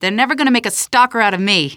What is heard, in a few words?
question, female; Half-Life 2